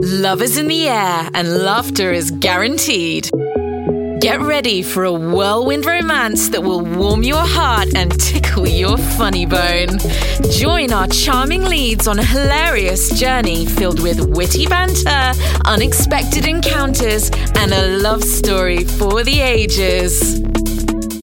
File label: female english tv commercial; english southern accents